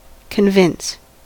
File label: "convince", us